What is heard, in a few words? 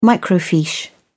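"microfiche", British English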